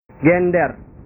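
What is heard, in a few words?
"gender", Indonesian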